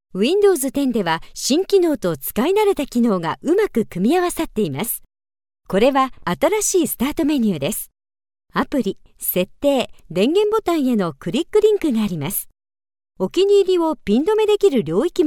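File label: Japanese, female, narration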